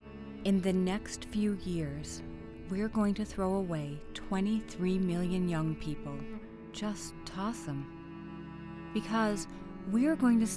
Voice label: Medical PSA